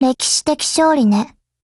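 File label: Japanese, Voice; GF Alarm; Shipka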